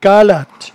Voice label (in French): "calatte", patois jura